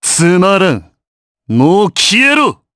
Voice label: Vox Skill, jp; Shakmeh; kings raid

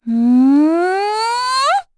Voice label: Vox Casting, en; kingsraid; voices heroes

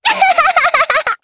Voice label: Happy, jp; Dosarta; kingsraid

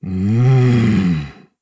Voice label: Vox Casting, jp; Dakaris; kingsraid

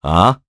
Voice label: Vox Deny, jp; Mitra; kings raid

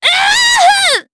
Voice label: Vox Damage jp; Nicky; kings raid

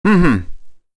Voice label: Vox, en; kingsraid

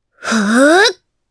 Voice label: Vox Casting, jp; Requina; kingsraid

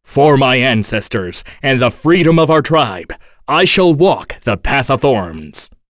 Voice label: vox, en; kingsraid; voices heroes

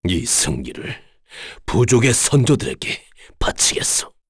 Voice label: Victory, kr; Dakaris; kingsraid

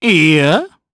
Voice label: Vox Deny, jp; Bernheim; kingsraid

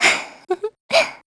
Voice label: Vox Happy; kingsraid

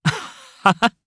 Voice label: Happy, jp; Siegfried; kingsraid

